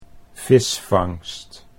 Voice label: "visvangst", Dutch